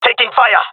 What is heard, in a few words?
takingfire, male; player vo; Counter Strike Model Pack; CS:GO